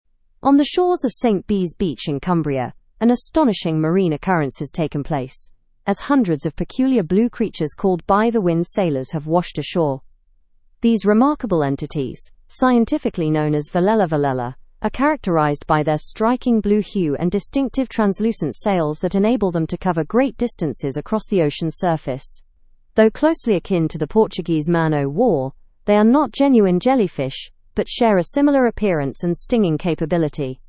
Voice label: learn english through news advanced; marine phenomenon blue sea creatures arrive on st bees beach

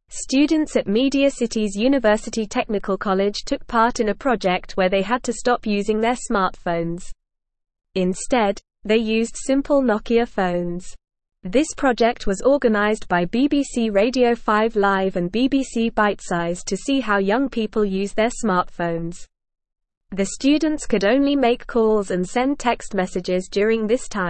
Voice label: English NORMAL Reading; Students Give Up Smartphones to See How Much They

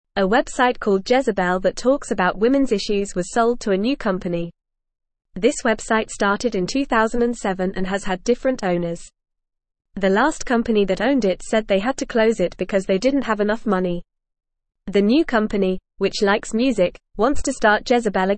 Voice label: English Newsroom Lower Intermediate FAST Reading; Jezebel is Coming Back New Company Buys It

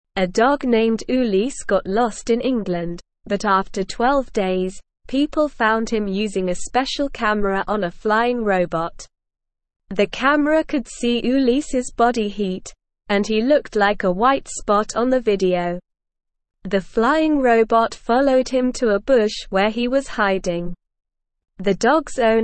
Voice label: English Lower Intermediate SLOW Reading; Flying Robot Helps Find Lost Dog Family Wants More; English Newsroom

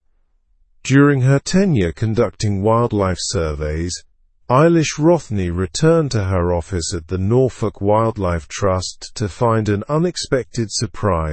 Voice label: learn english through news advanced slow; norfolk wildlife office overtaken by wasp nest

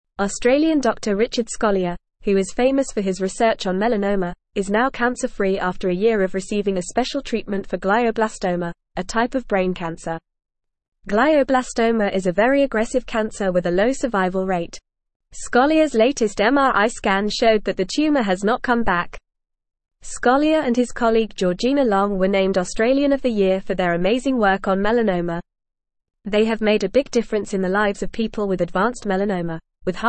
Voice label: English FAST Reading; Australian Doctor Beats Glioblastoma with Experimen

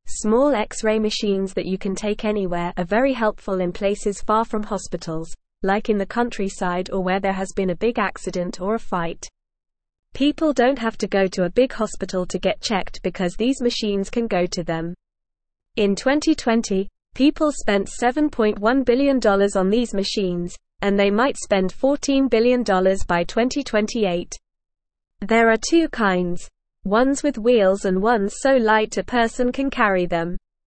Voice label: English Lower Intermediate NORMAL Reading; Special Cameras Help Doctors See Inside Your Body; English Newsroom

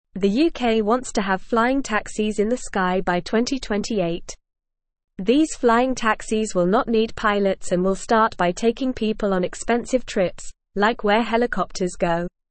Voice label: English Beginner NORMAL Reading; English Newsroom; UK Plans for Flying Taxis by 2028

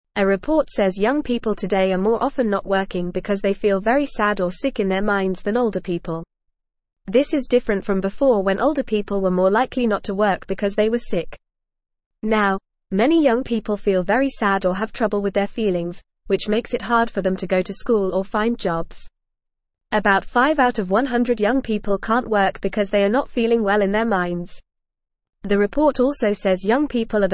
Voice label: English Beginner FAST Reading; English Newsroom; Young People Feeling Sad and Not Working

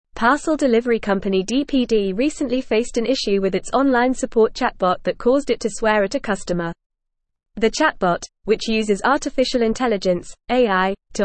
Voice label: English Newsroom Advanced FAST Reading; DPDs AI chatbot disabled after customer swearing incident

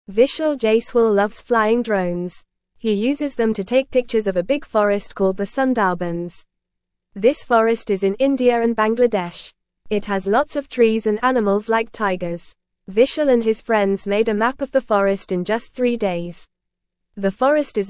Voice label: English Beginner FAST Reading; Vishal Jaiswal and His Drone Adventures in Sundarbans

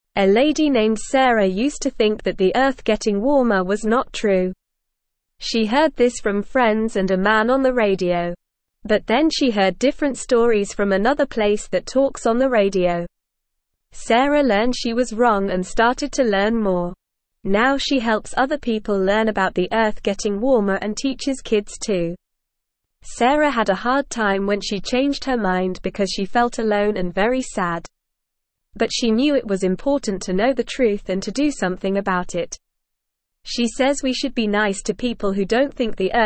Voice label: English Newsroom Beginner NORMAL Reading; Sarah Learns About Global Warming and Teaches Others

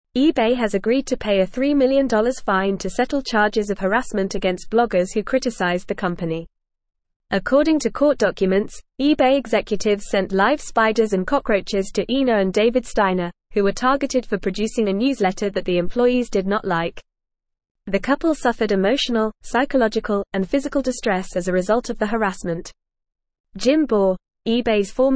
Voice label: Advanced FAST Reading, English; English Newsroom; eBay to Pay 3 Million Fine for Harassment Charges; eBay has agreed to pay a $3 million fine to settle charges of harassment against bloggers

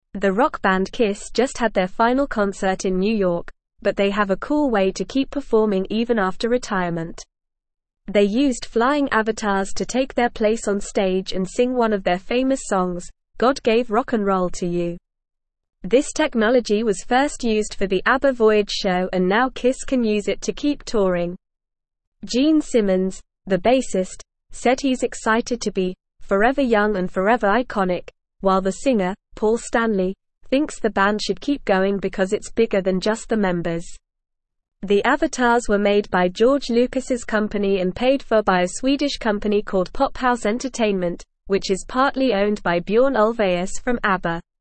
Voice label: Upper Intermediate NORMAL Reading, English; English Newsroom

